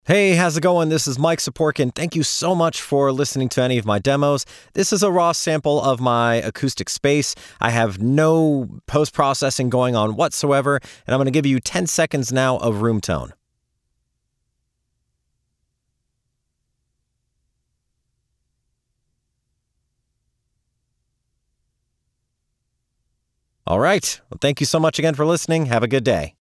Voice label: Raw Studio Sample